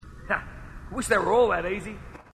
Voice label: marine, aussie; h2dialogue

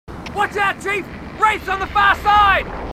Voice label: marines, aussie; h2dialogue

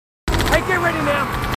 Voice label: marines, aussie; h2dialogue

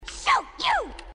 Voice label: shoot you, grunts dialogue

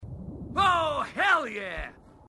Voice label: marine, aussie; h2dialogue

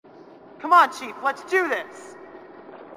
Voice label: come on chief lets, marine tough

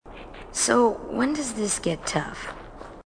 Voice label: female marine, marine tough

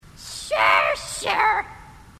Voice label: grunt; h2dialogue; grunt crazy

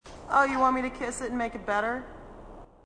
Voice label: female marine, sassy

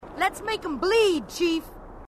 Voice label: lets make em bleed chief, marine tough

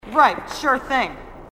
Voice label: marine sassy

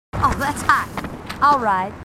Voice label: marines female, marine tough

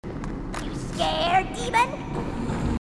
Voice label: grunt; h2dialogue; grunt crazy

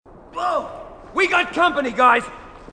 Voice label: marine, aussie; h2dialogue

